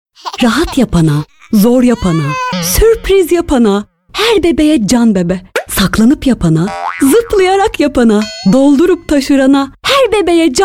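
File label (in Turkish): reklam, Turkish; canbebe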